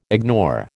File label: "ignore", American